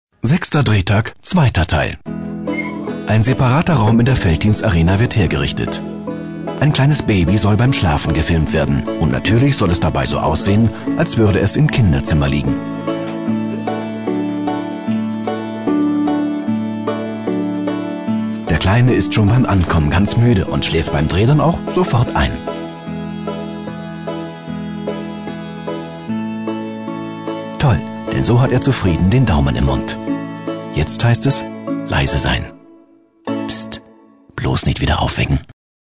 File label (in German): kommentar